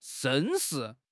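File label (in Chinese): "绅士", Chinese; HSK6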